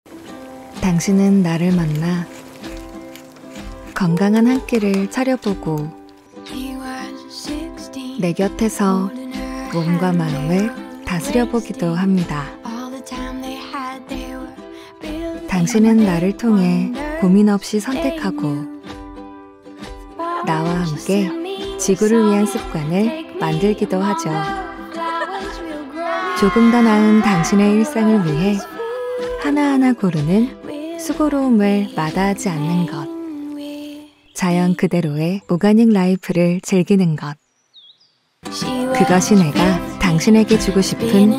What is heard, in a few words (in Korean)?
편안한 성우스럽지 않은 톤 차분한 20대; 달리살다